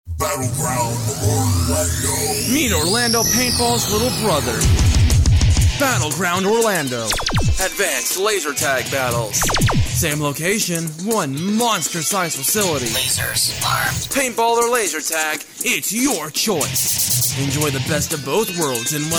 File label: voiceover